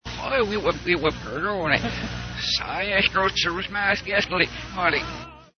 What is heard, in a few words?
backwards; bush